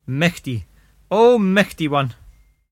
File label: "michty: Oh Michty One!" [mICKH-tee: oh, MICKHtee Wun!]